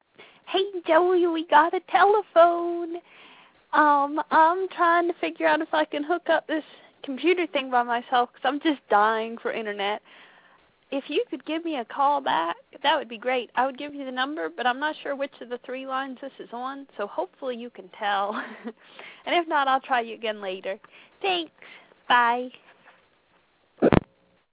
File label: phone